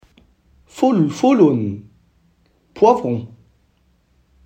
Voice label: poivron en arabe

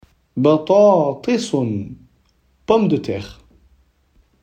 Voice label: pomme de terre en arabe

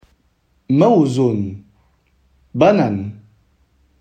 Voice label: banane en arabe

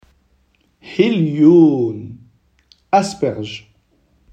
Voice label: asperge en arabe

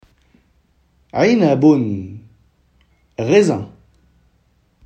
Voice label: raisin en arabe

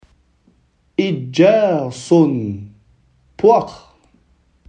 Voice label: poire en arabe

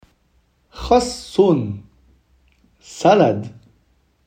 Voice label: salade en arabe

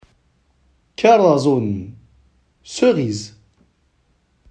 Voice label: cerise en arabe

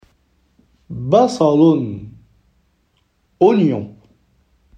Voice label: oignon en arabe

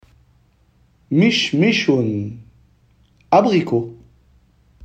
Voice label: abricot en arabe

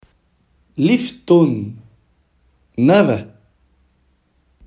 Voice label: navet en arabe

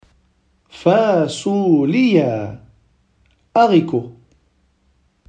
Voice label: haricot en arabe

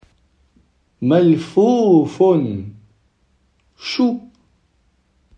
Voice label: chou en arabe

